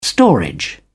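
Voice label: "storage", English, British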